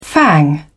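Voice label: "fang", British English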